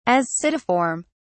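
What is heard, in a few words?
"ascidiform", British English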